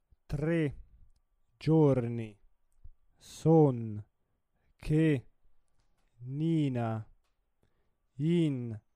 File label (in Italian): monotono